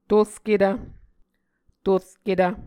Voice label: "torketv", Muscogee